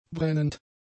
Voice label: "brennend", German; adjectives

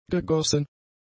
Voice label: "gegossen", german; adjectives

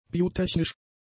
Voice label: "biotechnisch", German; adjectives

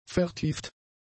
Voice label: "vertieft", German; adjectives

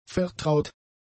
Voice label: "vertraut", German; adjectives

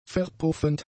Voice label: "verpuffend", german; adjectives

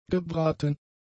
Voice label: "gebraten", German; adjectives

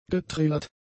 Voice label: "getrillert", German; adjectives

